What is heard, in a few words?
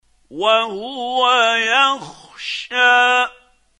quran, Hussary, tafseer; Abasa